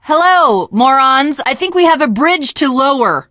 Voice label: finale chat, teengirl, voice; Left 4 Dead 2

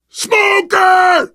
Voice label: warn smoker, survivor voice biker; Left 4 Dead 2

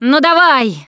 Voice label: defibrillator, russian; Producer

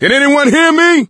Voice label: call for rescue, voice; Left 4 Dead 2; coach

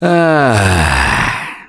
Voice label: pain relief first aid, russian, Survivor Voice; Left 4 Dead 2; Gambler

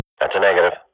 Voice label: radio bot reponse negative, Counter-Strike swat; Global Offensive; player vo

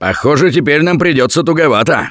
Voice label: Double Death Response, russian, Survivor Voice; Gambler

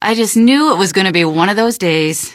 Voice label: question, female; npc; Garry's Mod; hl2

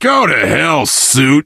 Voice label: initial meeting, survivor voice biker

